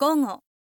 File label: info lady gogo